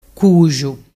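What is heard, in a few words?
"cuxu", Vernazzese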